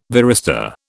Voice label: "varistor", British

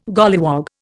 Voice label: "golliwog", Indian

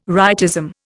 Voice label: "rightism", Indian

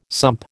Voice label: "sump", American

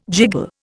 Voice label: "jiggle", Indian

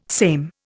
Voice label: "same", Indian

